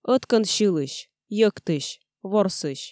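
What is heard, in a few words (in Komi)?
ӧткӧн сьылысь, йӧктысь, ворсысь, Komi, female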